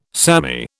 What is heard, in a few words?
"samey", British